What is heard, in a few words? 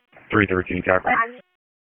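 Police Northeast District Disp, radio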